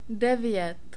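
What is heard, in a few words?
"devet", czech, male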